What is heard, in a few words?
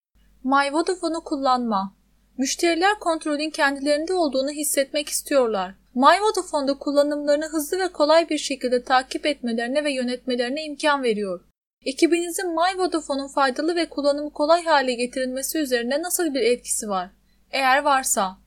Training Software Turkish Voice over; Vodafone UK